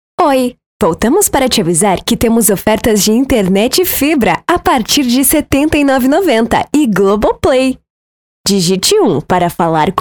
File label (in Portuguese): espera telefonica